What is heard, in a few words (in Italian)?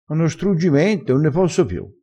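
"struggimento", vocabolario dialettale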